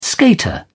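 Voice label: "skater", British English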